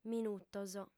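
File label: "minutos", female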